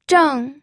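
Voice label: "zheng4", Mandarin Chinese pinyin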